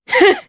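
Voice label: Vox Happy, Japanese; Morrah; kingsraid; jp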